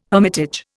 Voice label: "hermitage", Indian